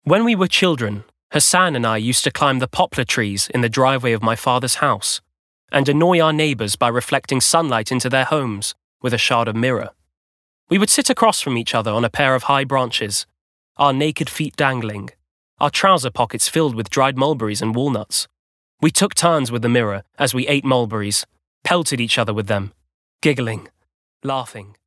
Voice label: Audiobook